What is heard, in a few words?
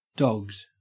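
"dogged", Australian English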